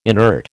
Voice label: "inert", American

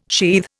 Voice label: "sheathe", Indian

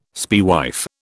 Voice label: "spaewife", British

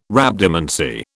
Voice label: "Rhabdomancy", British

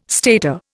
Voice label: "stater", Indian

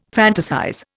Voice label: "fantasize", Indian

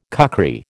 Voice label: "kukri", American

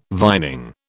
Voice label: "vining", British

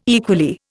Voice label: "equally", Indian

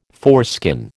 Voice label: "foreskin", American

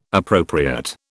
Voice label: "appropriate", British

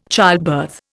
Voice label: "childbirth", Indian

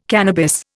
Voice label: "cannabis", Indian

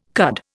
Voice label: "cud", Indian

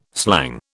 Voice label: "slang", British

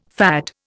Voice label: "FAD", Indian

